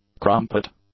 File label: "crumpet", English; nouns